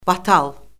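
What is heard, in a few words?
"batal", Azerbaijani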